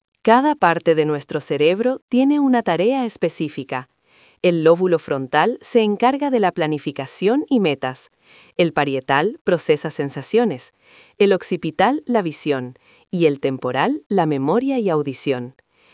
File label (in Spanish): locucion